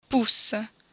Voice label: "pus", Italian